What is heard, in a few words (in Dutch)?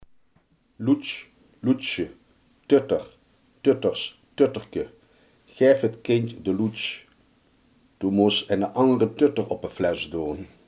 "speen", Limburgs